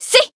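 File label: attack, Japanese; niike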